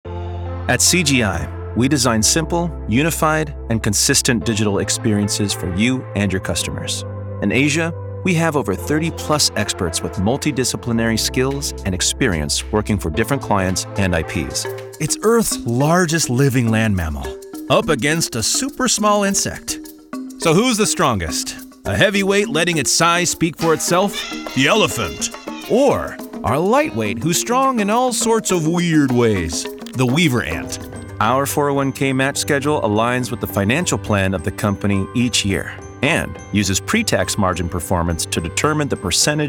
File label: Narration